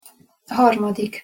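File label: "harmadik", Hungarian pronunciation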